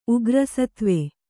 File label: "ಉಗ್ರಸತ್ವ", Kannada